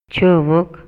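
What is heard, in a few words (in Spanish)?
Maya, Yucatán, male; RELACIONES ESPACIALES; INALI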